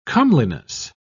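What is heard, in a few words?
"comeliness", British English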